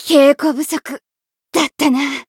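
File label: Voice win barely, Japanese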